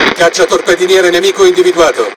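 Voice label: Speech Detection Enemy Destroyer, Italian; World Of Warships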